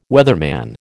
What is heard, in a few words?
"weatherman", American